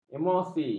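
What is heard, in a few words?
"emosi", Malay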